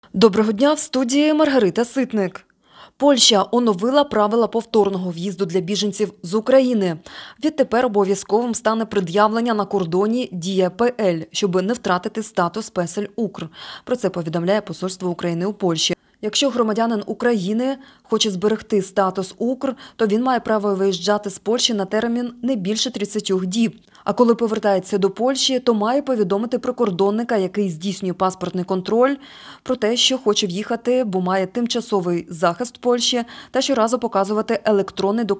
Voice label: Ukrainian Evening News